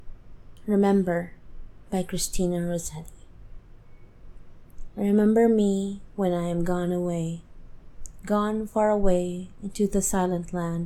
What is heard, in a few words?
Poem, mourning; F4A; Remember; Poem by Christina Rossetti; For UK National Poetry Day 2017